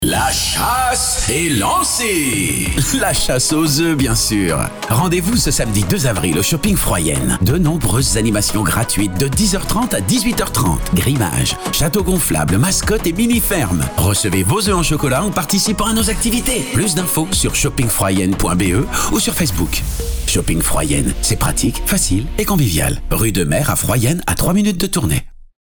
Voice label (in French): SPOT PAQUES, voix off